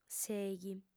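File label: "sèighi", female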